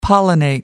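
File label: "pollinate", British English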